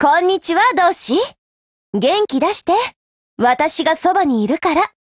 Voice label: HELLO JP; GF Alarm; M1891Mod